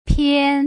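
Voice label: "pian", Mandarin Chinese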